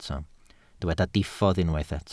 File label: "diffodd", Welsh